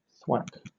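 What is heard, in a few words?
"thwack", British English; pronunciations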